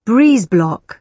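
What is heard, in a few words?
"breeze-block", British English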